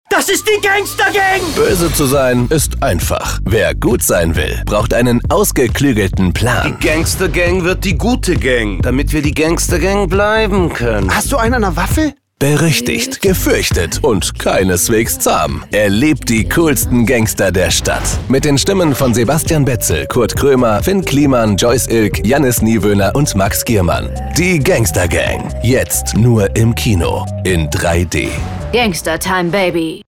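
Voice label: German male Commercial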